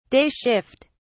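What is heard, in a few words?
"day shift", English, British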